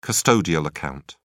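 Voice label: "custodial account", British English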